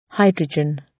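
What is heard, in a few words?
"hydrogen" British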